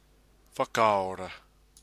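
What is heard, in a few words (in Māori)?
"whakaora", Māori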